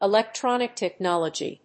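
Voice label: "Electronic Technology", English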